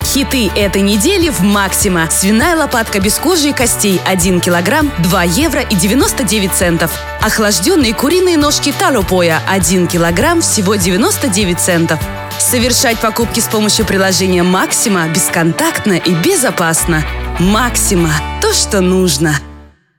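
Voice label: Russian, female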